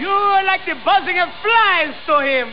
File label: ghost speech